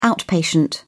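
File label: "outpatient", British English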